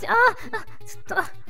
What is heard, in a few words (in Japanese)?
ダメージボイス; 悪魔城ドラキュラ(FC版)